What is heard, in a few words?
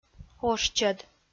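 "ошчӧд", Komi, female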